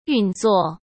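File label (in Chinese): "运作", Mandarin Chinese; hsk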